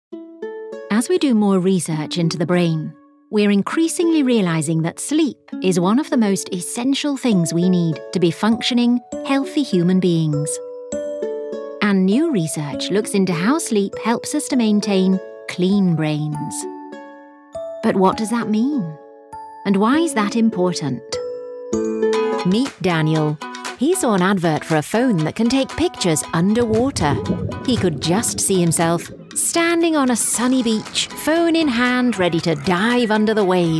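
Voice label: corporate, female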